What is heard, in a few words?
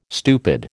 "stupid", American